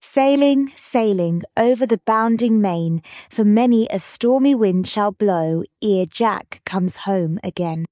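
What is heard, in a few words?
female British, classic rhymes; Sailing Sailing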